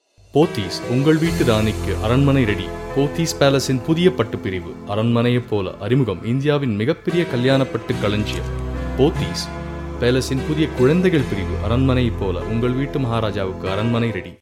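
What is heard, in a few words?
TL General, Tamil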